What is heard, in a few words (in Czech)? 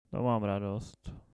to mam radost otravene